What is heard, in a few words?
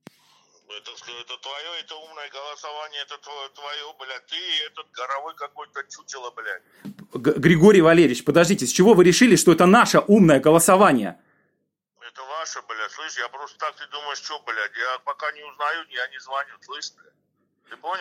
phone record